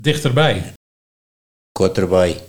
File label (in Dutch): "Korterbai", Nieuw lekkerlands dialect; dichterbij